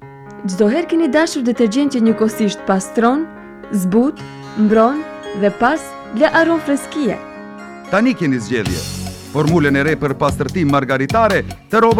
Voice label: radio ad; perla